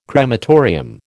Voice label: "crematorium", American

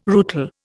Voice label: "rootle", Indian

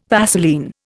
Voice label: "Vaseline", Indian

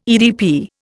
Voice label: "EDP", Indian

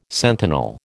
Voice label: "sentinel", American

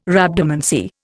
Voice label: "Rhabdomancy", Indian

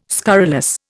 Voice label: "scurrilous", Indian

